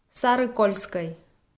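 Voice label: "сарыкольскӧй", Komi, female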